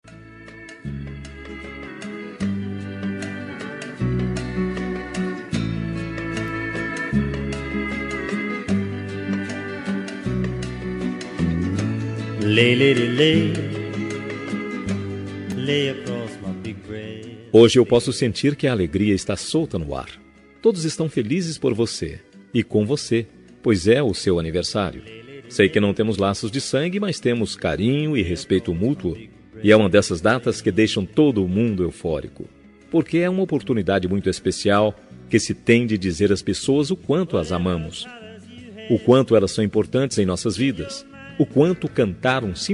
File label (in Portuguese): enteado masc